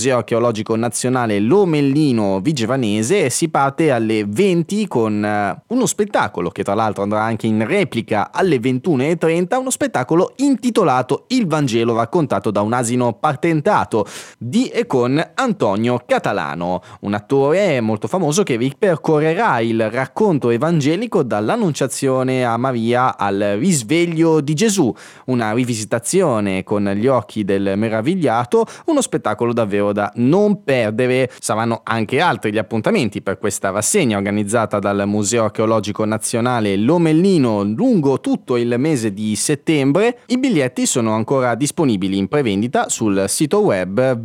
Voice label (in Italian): voci narrate